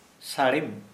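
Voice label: Santali male granary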